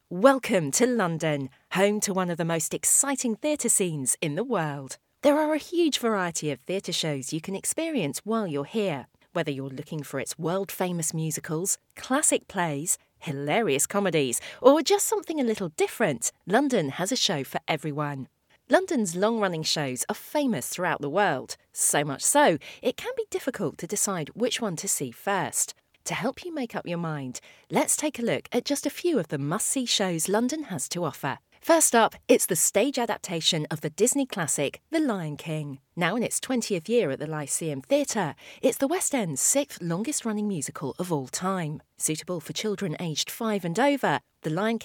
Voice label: narration

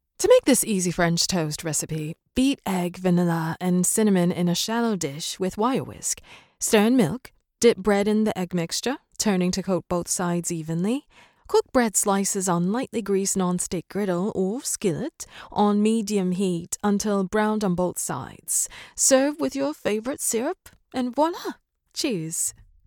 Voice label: british accent

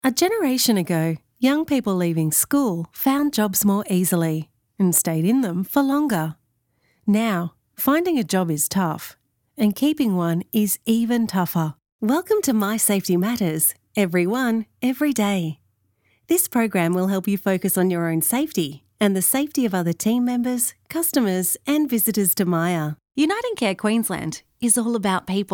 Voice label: corporate

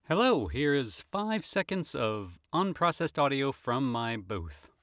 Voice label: Studio Sample

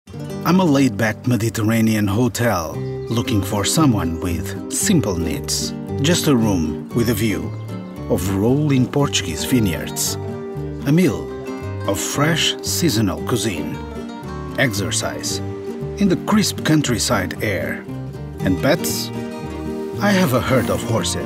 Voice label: English Voice

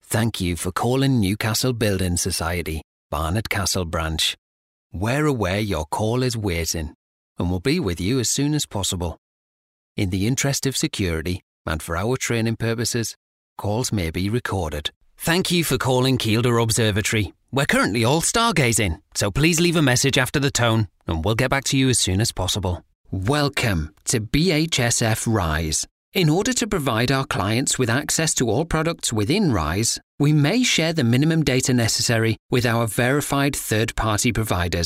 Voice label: Telephone and IVR

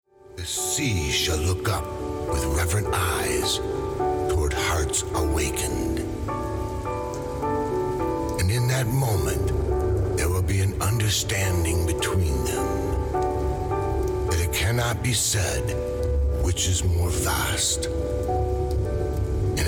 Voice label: NARRATION

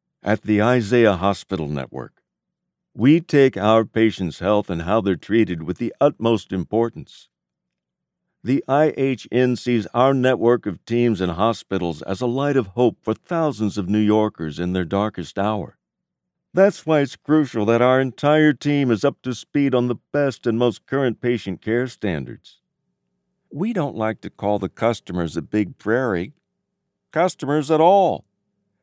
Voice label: eLearning

